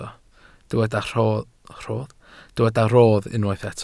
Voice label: "rodd", Welsh

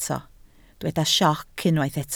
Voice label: "sioc", Welsh

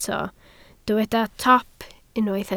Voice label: "top", Welsh

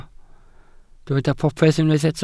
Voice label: "popeth", Welsh

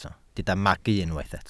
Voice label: "magu", Welsh

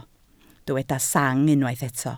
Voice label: "sang", Welsh

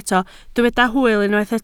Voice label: "hwyl", Welsh

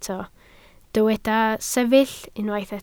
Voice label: "sefyll", Welsh

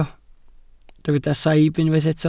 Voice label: "saib", Welsh

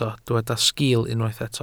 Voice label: "sgiil", Welsh

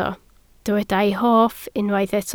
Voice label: "hoff", Welsh

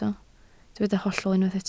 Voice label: "hollol", Welsh

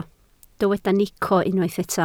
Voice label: "nico", Welsh

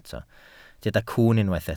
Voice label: "cwwn", Welsh

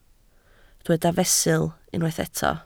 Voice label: "fesul", Welsh

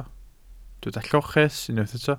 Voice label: "lloches", Welsh

